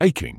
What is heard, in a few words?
"aching", medical english uk; ˈeɪ.kɪŋ; AY-king